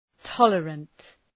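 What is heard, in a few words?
"tolerant", English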